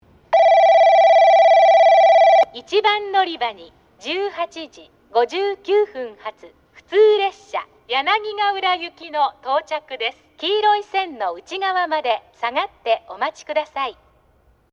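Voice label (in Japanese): 1番のりば接近放送, 女声; 行橋; 普通 柳ヶ浦行き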